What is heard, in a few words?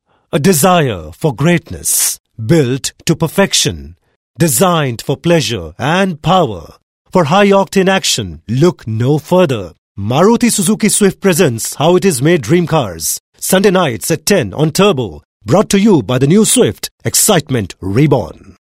English, male voice